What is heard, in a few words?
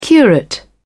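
"curate", British English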